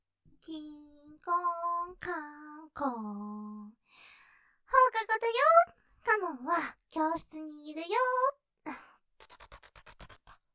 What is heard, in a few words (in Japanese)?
教室プレイ 放課後のキンコンカンコン♪; ステレオ